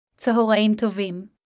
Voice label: Hebrew Good afternoon; Greetings